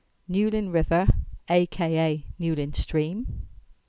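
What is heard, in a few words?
English; Newlyn River aka Newlyn Stream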